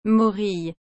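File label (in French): "morille", French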